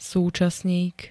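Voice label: "súčasník", Slovak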